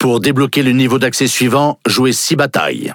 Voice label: Speech Access Level Unlock, FR; World Of Warships

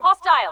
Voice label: hostile, Human Pilots; Titanfall 2

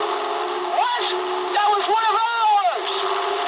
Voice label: eng player killed ally mood high, speech eng; War Thunder

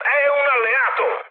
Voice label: player friendly fire mood high, speech it

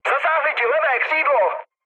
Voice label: left wing, speech cze, mood med; War Thunder

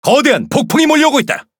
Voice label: Speech Alarms Storm, KO; World Of Warships

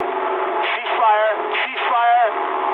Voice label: eng player friendly fire mood low, speech eng; War Thunder

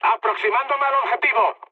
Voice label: near waypoint, mood high, speech; War Thunder

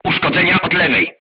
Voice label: voice message shot left, polish

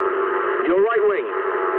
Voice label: eng right wing mood low, speech eng; War Thunder

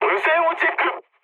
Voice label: jp chat, speech jp

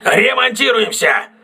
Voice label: voice message repairing, crew ships russian

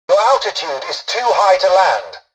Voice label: tutorial dialogue, english; War Thunder

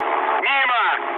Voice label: bomb failure, mood low, speech ru; War Thunder

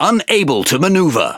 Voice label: Speech Defective Modules Wheel Damaged, EN GB; World Of Warships

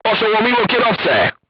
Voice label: voice message driver contused, crew tanks polish

